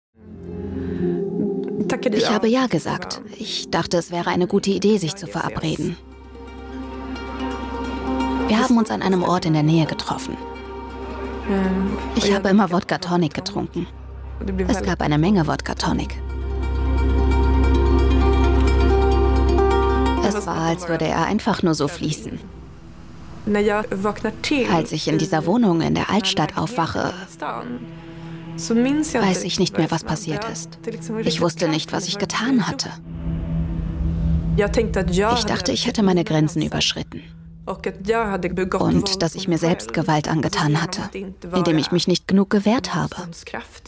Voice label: voice over betroffene; Muttersprache Deutsch

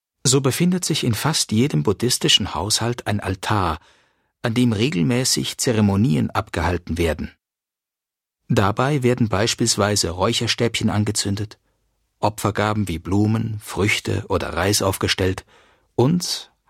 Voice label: dokumentation museum tourtext buddhismus reiseschrein; museum rietberg

